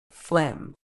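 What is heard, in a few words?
"phlegm", medical English, British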